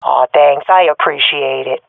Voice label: thankful, swat fem; vo; agents; Source Model Packs; Counter Strike Model Pack; CS2